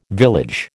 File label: "village", American